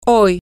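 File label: today, Spanish, female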